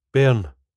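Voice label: "bairn", Scottish English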